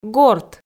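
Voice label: "горт", Komi, female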